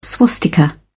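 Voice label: "swastika", British English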